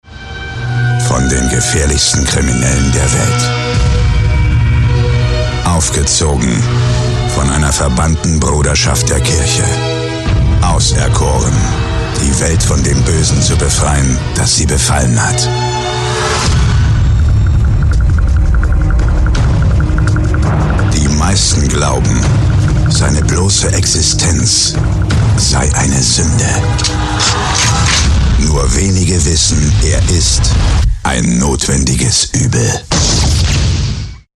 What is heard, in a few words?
Trailer